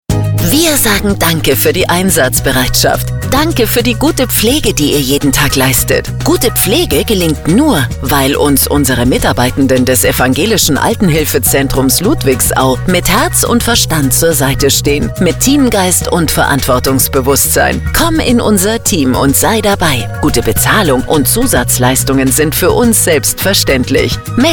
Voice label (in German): werbespot; ev. altenhilfezentrum ludwigsau